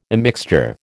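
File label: "immixture", American English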